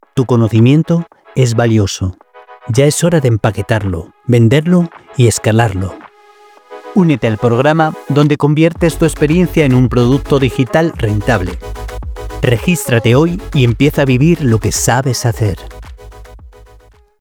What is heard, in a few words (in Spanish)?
anuncio para formador de expertos coaches freelancers y mentores; Demo para anuncio para formador de expertos (coaches, freelancers y mentores)